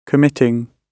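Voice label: "committing", British English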